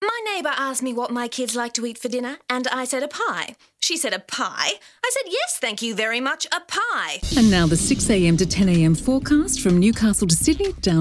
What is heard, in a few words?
Character